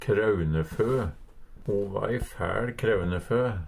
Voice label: "kraunefø", Numedalsmål; Substantiv hokjønn

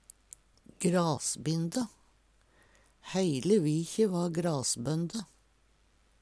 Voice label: grasbinde, Numedalsmål; Verb; Planteriket

